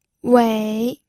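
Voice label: "wei3", Mandarin Chinese, pinyin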